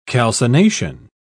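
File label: "calcination", English, British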